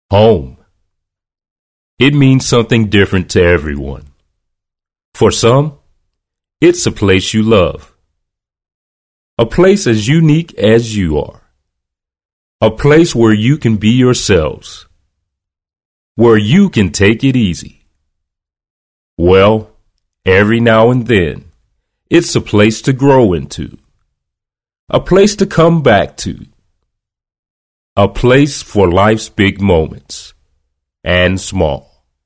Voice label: Warm American voice Male and female